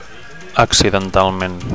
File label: "accidentalment", Catalan; accidentally